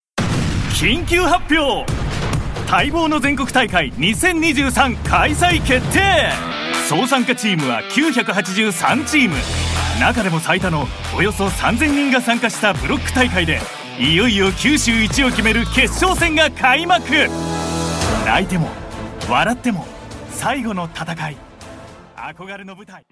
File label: japanese promos